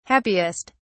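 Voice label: "happiest", British English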